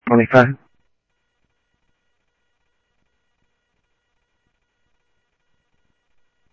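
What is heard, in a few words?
Sheriff West County, scanner audio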